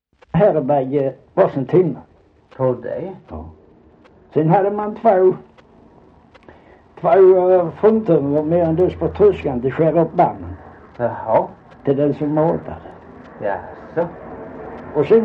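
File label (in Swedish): Holm Halland, dialekter; Folkminnen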